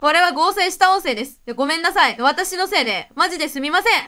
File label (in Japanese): fineCrystal (sad), これは合成した音声です、、ごめんなさい、、わたしのせいで、、まじですみません。; girl-style-bert-vits2-JPExtra-models